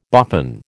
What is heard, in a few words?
"boffin", American